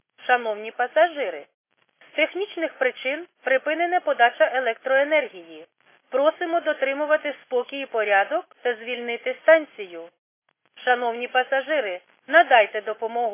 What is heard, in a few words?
khs st female, subway station